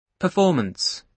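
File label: "performance", British English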